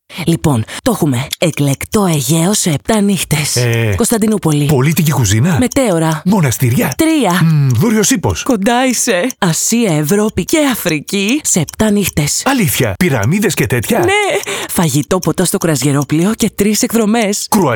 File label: greek podcasts